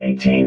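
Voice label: "eighteen", robot voice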